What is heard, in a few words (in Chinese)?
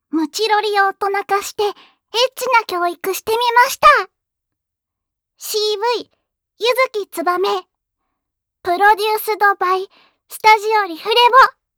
標題朗讀